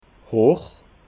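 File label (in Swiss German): "hoch", Alsatian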